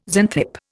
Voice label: "Xanthippe", Indian

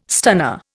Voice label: "stunner", Indian

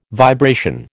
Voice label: "vibration", American

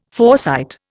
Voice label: "foresight", Indian

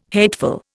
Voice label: "hateful", Indian

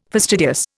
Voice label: "fastidious", Indian

